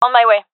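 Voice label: on my way, female; gendarmerie fem epic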